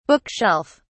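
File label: "book shelf", British English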